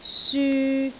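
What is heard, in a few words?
"syu3", Cantonese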